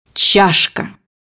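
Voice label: "cup", Russian; bukvar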